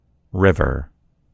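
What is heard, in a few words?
"river", American English; gcompris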